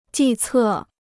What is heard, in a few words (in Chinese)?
"计策", Chinese